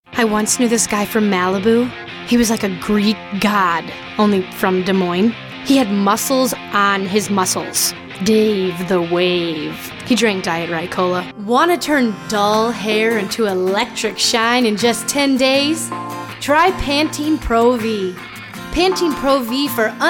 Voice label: Commercial